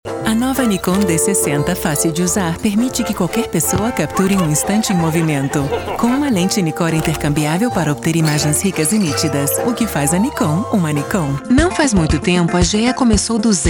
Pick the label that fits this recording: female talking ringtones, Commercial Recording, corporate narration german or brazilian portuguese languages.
brazilian portuguese languages